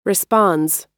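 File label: "responds", American English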